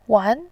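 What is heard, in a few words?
"wan", Mandarin Chinese, tone 2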